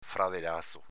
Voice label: "fradelazo", dialetto veneto; s.m. fratellastro

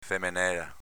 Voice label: "femenela", dialetto veneto; agg. effeminato

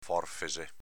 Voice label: "forfese", dialetto veneto; s.f. forbice

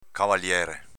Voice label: "cavaliere", dialetto veneto; s.m. baco da seta

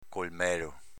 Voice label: "colmelo", dialetto veneto

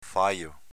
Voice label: "fajo", dialetto veneto; s.m. faggio

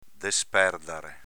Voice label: "desperdare", Veneto; despčrdare

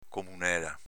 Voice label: "comunela", dialetto veneto

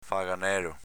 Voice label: "faganelo", dialetto veneto; s.m. fanello; (specie di uccello)

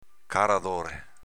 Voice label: "caradore", dialetto veneto; s.m. fabbricante di carri

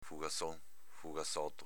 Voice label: "fugazon", dialetto veneto; fugazón, fugazòto; s.m. Grosso e impacciato

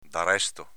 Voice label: "daresto", dialetto veneto; avv. peraltro

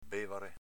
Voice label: "bevare", dialetto veneto

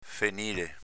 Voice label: "fenile", dialetto veneto; s.m. fienile

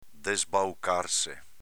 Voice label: "desbaucarse", dialetto veneto; v. rifl. disincantarsi

